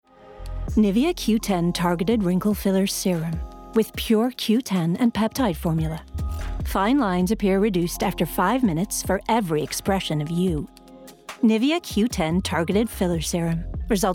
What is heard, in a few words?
warm smooth aspirational US Accent; Nivea Q10